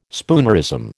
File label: "spoonerism", American